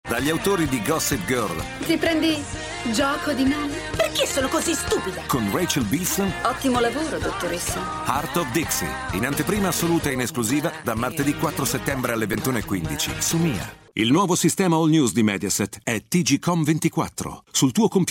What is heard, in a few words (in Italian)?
promos